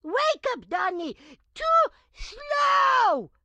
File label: grandma chopper missed; Blind Drive